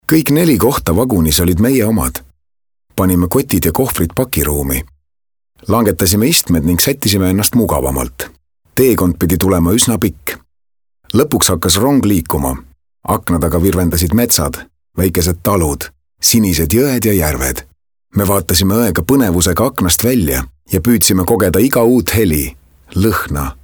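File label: Estonian male example Casual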